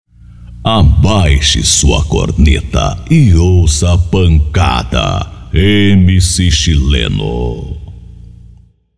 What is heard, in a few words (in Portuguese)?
vinheta